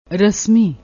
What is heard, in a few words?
"rasmi", Punjabi